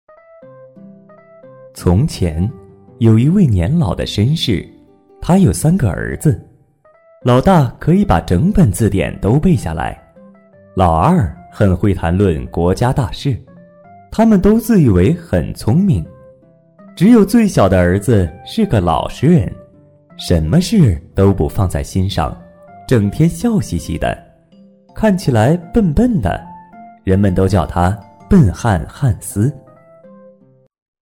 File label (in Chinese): 男; 笨汉汉斯; 课件; 亲和